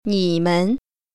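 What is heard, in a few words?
"ni3men0", Chinese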